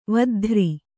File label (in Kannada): "ವದ್ಧ್ರಿ", Kannada